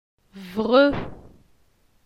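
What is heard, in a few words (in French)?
"Vre", French; phonetique; la syllabe e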